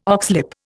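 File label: "oxlip", Indian English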